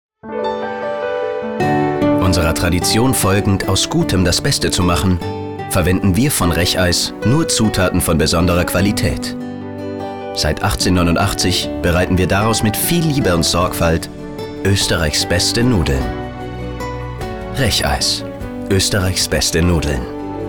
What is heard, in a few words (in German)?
Mann